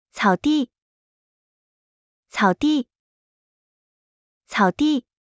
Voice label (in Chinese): "草地", mandarin; Traditional Chinese